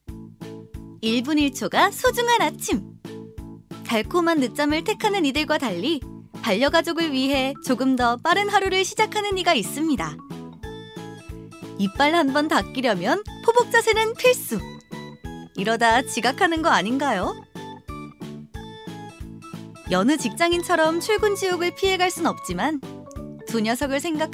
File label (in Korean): 내레이션 밝은자연스러운미소